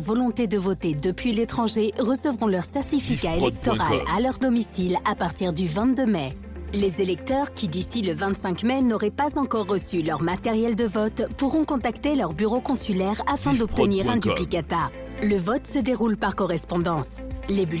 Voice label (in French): Spot Referendum Consulat Italie Version FR, publicite